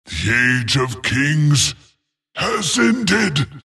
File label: wraith death; dota; skeleton king